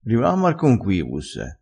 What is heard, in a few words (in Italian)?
"conquibusse", crespinese